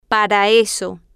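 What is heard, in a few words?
"para eso", Spanish, female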